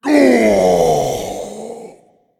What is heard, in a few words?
Male Titan Death